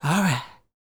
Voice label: DIALOG, R&B Dance, FEM. SPOKEN